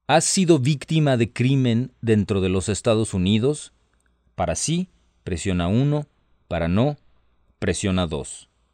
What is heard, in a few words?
Spanish, hotline